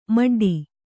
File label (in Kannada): "ಮೆಡ್ಡೆ", Kannada